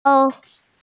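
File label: "shou3", Mandarin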